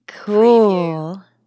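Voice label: Woman Says Cool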